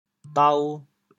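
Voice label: "dao2", Teochew, chaoyang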